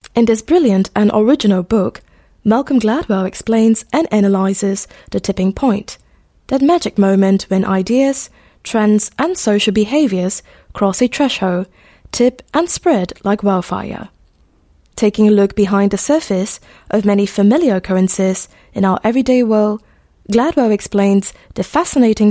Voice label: Asian English Corp Training, English Singaporean Female